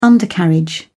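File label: "undercarriage", British English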